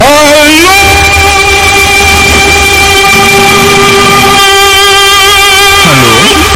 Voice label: halloooooooooo loud